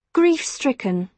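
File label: "grief stricken", British English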